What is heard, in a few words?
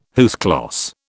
"à huis clos", British